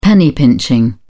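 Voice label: "penny pinching", English, British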